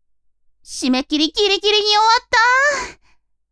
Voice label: women